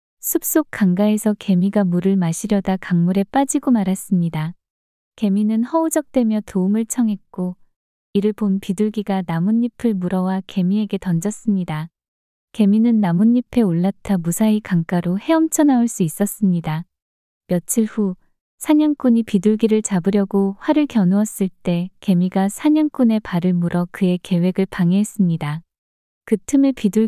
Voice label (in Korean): 이야기 여자; 개미와 비둘기